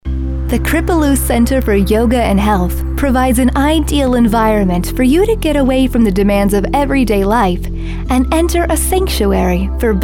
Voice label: Voice Over Commercial

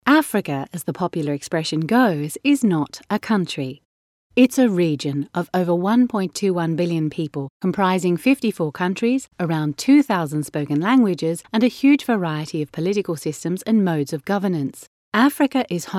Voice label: Explainer Video Africa

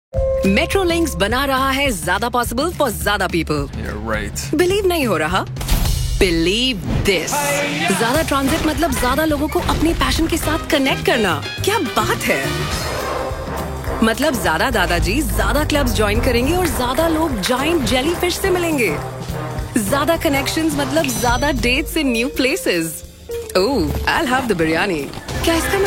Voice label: Hindi; Metrolinx

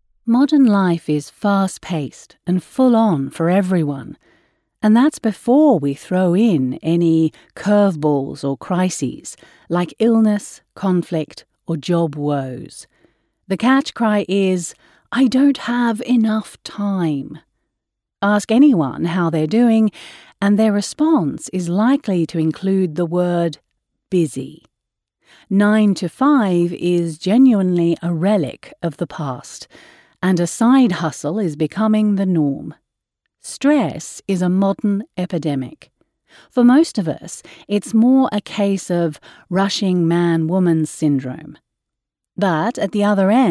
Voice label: Self care Non Fiction Self Help Australian

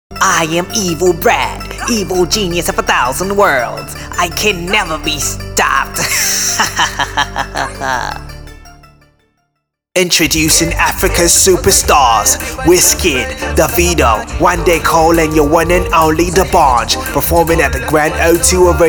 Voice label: My Voice Over

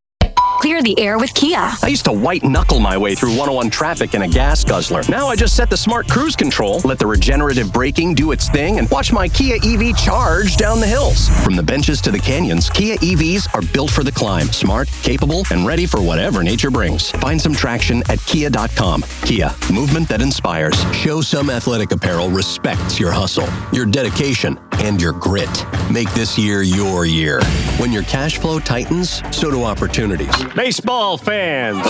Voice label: Commercial, North American

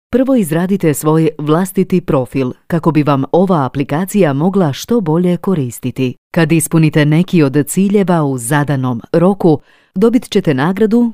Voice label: Croatian Narration for Android Application

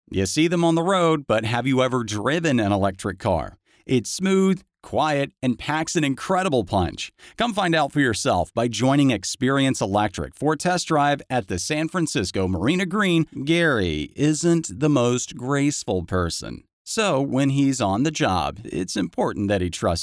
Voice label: Radio VO

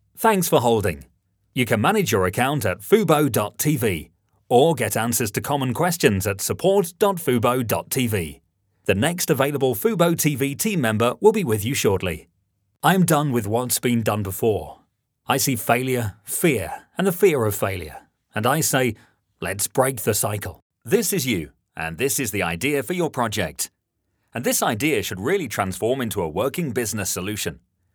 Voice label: short dry; showreel

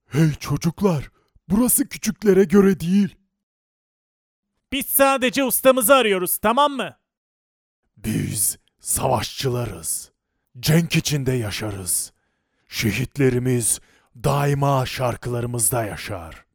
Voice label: cartoon character

